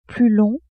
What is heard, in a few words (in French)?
"plus long", French; vetements